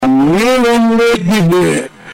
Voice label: male